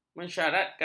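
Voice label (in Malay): "mensyaratkan", Malay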